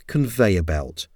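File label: "conveyor belt", English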